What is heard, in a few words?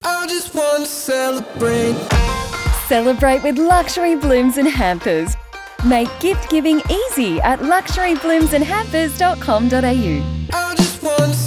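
luxury hampers radio spot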